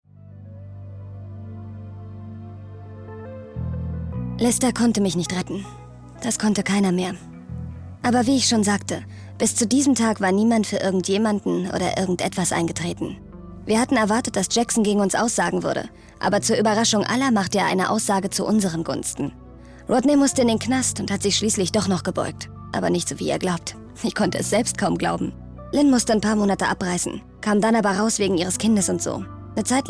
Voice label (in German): synchron